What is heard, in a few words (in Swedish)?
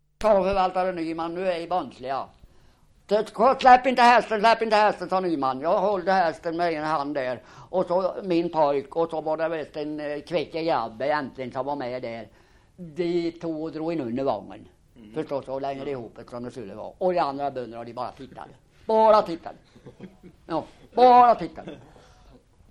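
Svinhult Östergötland, dialekter; Folkminnen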